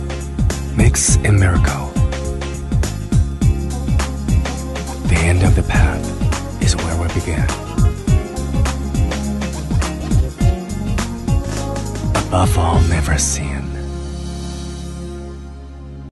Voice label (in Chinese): 男 英文 宣传片 品牌宣传